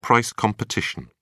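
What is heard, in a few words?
"price competition", British English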